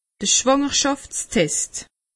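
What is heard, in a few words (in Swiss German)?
"schwangerschaftstet", Alsatian; medecine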